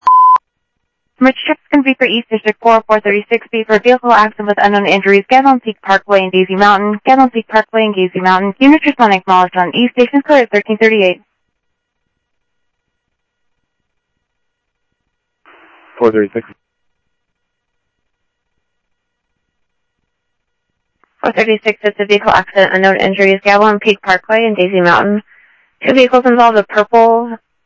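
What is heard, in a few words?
Lakes Sheriff Lake Patrol, scanner audio trunk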